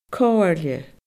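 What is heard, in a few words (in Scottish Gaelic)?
"comhairle", Scottish Gaelic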